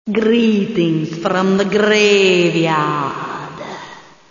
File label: Female - Greetings from the Graveyard